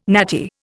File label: "natty", Indian